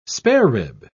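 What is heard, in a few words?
"sparerib", English, British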